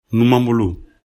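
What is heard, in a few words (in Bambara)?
"numanbolo", Bambara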